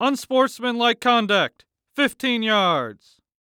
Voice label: Human Voice Male Young Man Football Referee Unsportsmanlike Conduct Fifteen Yards